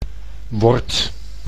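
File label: "word", Dutch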